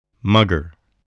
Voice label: "mugger", voice, spelling

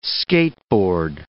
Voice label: "skateboard", words

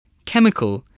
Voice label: "chemical", English, voice

